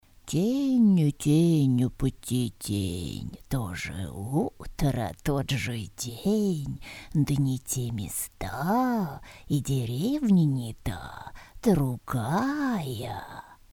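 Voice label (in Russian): babka skazochnicza, old woman storyteller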